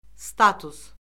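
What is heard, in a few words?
"status", Azerbaijani; Orfoqrafiya Lüğəti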